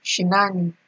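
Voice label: "shinani", Nigerian Pidgin, female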